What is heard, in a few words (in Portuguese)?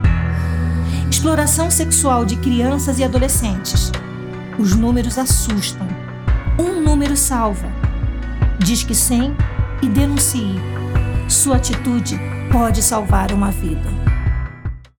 Spot; Disque 100; Denuncie